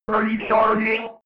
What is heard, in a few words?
robot voice recharging fx